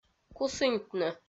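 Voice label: "кусыньтны", Komi, female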